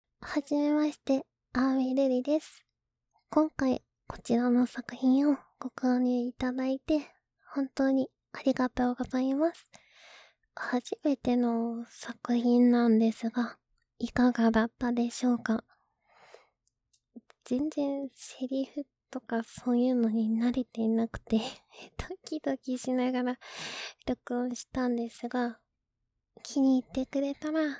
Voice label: フリートーク

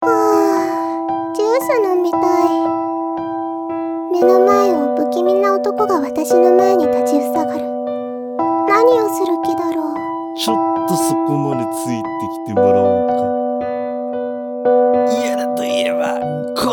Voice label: ボイスドラマ; カナリアボックス

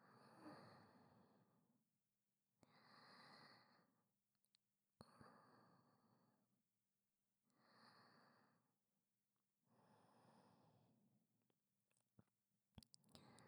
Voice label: 添い寝

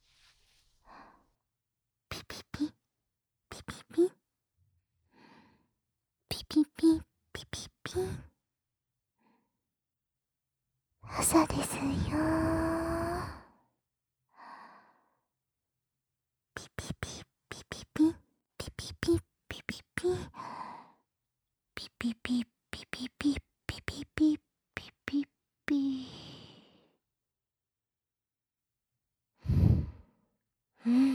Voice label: 家庭教師による目覚まし音声; キノコくちゅ無し版

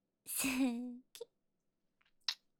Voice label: すーきっ！ちゅ！！ キス; 彼女面システムボイス

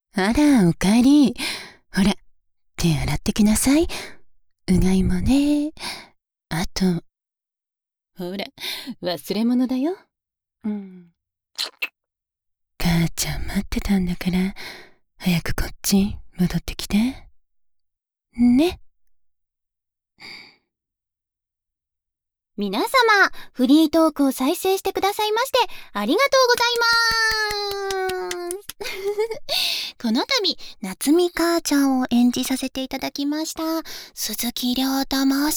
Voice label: フリートーク

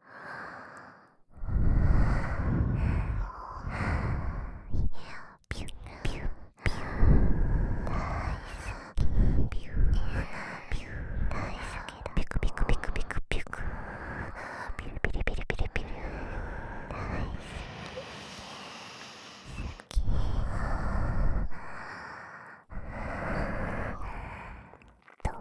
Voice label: 大好き＆ぴゅっぴゅっぴゅ～両耳同時ささやき

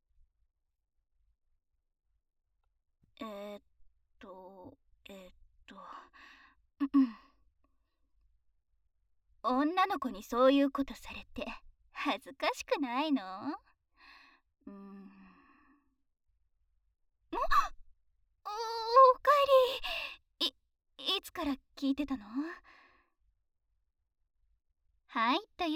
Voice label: フリートーク

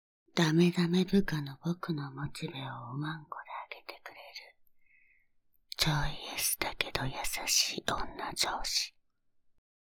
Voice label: タイトルコール, ASMR

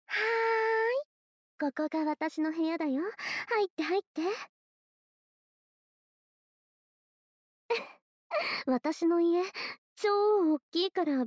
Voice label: 耳元で甘やかし全肯定されながらぐっぽり耳舐め, ASMR; SEなし; 手コキ♡

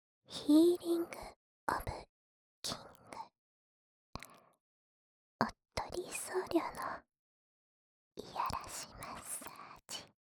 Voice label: タイトルコール

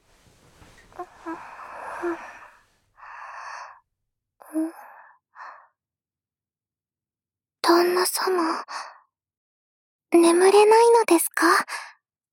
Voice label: 「おやすみなさい、旦那さま」, ASMR; SE有り

